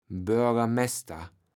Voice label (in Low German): "Boergermäster", kaevels platt